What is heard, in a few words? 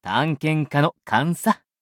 levelup ja; Cookie Run